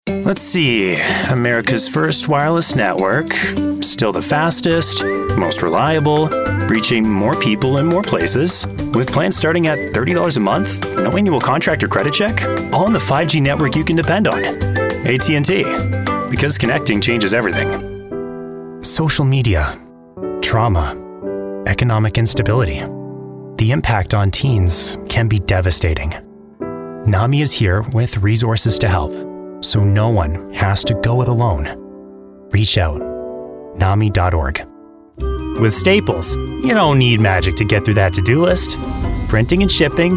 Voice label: Commercial; voiceovers